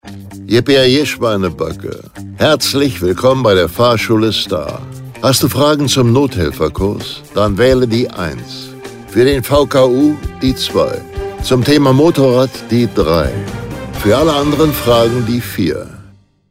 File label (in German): Ansage